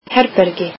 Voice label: icelandic room